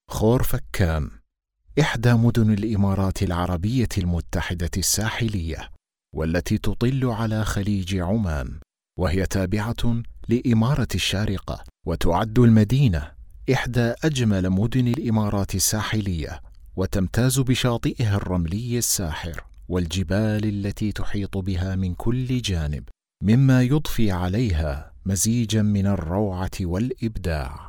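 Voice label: Arabic, Saudi Arabia, male, voice over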